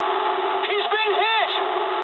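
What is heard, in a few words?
aircraft destroyed mood low, speech English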